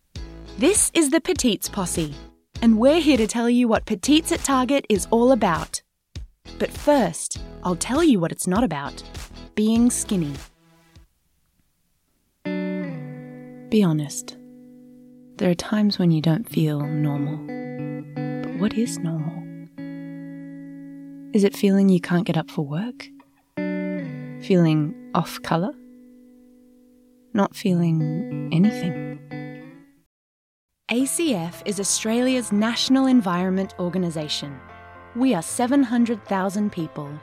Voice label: Aus Demo Reel